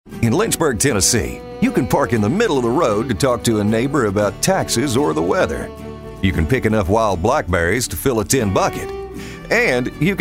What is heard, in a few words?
Characters Male, Commercial Voiceover, Character